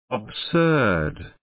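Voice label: "absurd", British English, male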